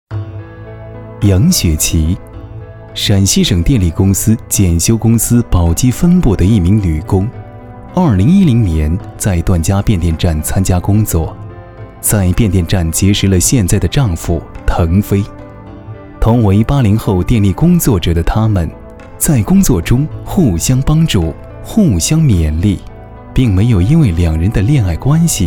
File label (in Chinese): 男 专题 人物舒缓